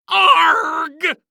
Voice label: Cartoon Loud Voice "Arrrgh"

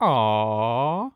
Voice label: Human Male "Aww How Cute" Aww

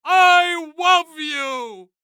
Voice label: Cartoon Loud Voice; AdobeCC

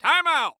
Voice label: Sports Human Voice Male Football Referee Time Out

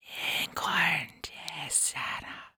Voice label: Horror Scary Human Female Whispering Spell

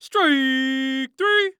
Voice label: Sports Human Voice Male Young Man Baseball Umpire; Strike Three

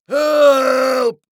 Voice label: Human Male Yell Help Old Man

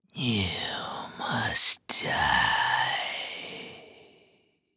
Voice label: Horror Scary Demon Speak You Must Die

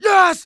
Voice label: Human Male Yells And Cheers Yes

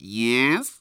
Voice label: Human Male Yes Nerdy Yes

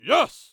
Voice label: "Yes", Human Male

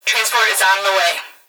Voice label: Human Female Voice Police Dispatch; Emergency

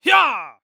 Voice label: Human Cowboy "Hee Yah" Voice

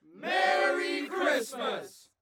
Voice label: Human Crowd "Merry Christmas"

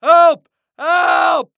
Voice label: Human Male Yell Help Multiple

